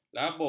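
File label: "labur", Malay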